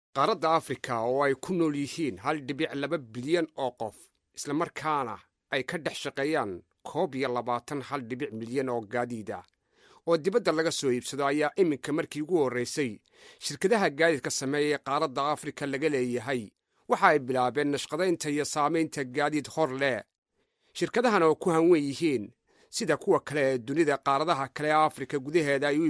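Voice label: Somali male voice over, narrative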